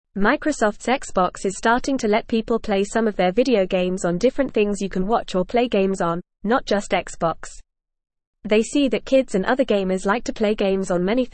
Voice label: English Beginner FAST Reading; Xbox and PlayStation want more people to play games on differ

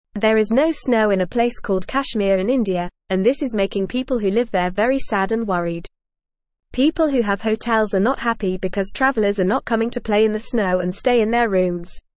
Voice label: English Newsroom Beginner FAST Reading; No Snow in Kashmir People Sad and Worried

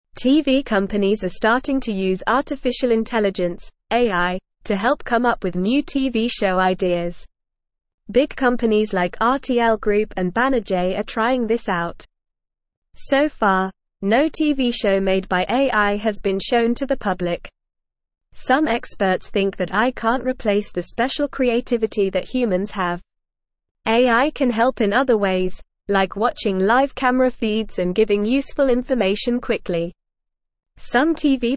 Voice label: English NORMAL Reading; TV companies try using smart computers to make sh

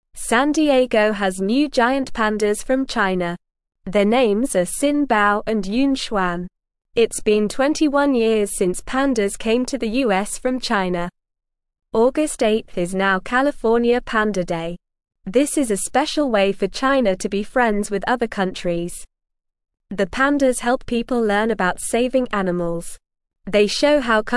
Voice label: English Newsroom Beginner NORMAL Reading; New Pandas Arrive in San Diego from China

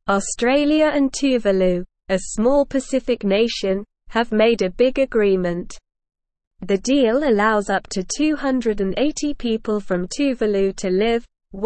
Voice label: English Upper Intermediate SLOW Reading; Australias Historic Pact Refuge for Tuvaluans from; English Newsroom

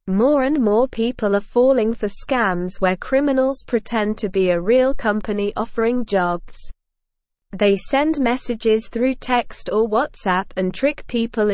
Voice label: English SLOW Reading; English Newsroom; Recruitment scam losses soar as victims increase

